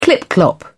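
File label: "clip-clop", British English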